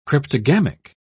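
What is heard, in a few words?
"cryptogamic", British English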